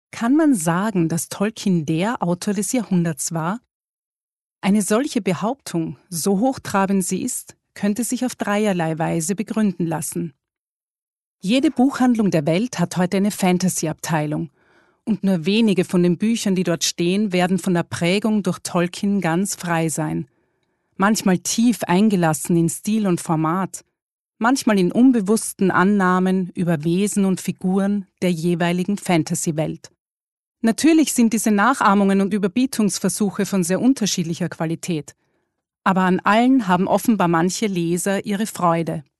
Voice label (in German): DOKU; TOLKIEN; Sprecherdatenbank